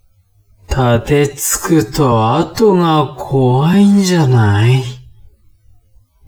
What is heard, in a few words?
man